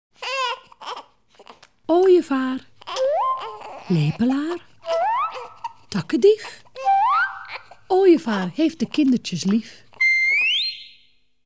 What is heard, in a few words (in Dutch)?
Ooievaar, lepelaar, takkendief, Rijmpjes en versjes voorgelezen